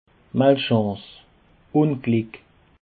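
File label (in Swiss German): "malchance", Alsatian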